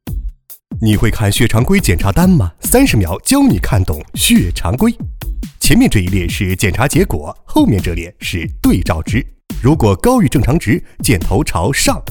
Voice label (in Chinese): 国语男; 30秒看懂血常规